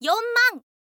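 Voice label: "yonman", Japanese, girl; voice; info girl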